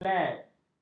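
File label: "flat", Malay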